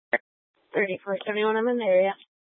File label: Police Dispatch, Scanner audio recording; Police 1 Dispatch